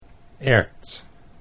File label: "erwt", Dutch